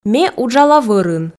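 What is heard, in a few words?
Komi, female; ме уджала вӧрын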